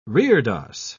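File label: "reredos", British English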